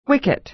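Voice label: "wicket", American English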